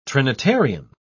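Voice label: "trinitarian", British English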